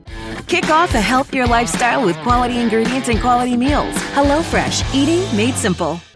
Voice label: Corporate, Warm Gravitas Inspirational, Female Voice; HELLO FRESH